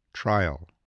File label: "trial", voice